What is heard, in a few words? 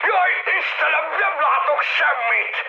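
Hungarian searchlite mood high, speech; War Thunder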